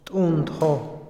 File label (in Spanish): gratis, Otomí, hombre; Posesión; INALI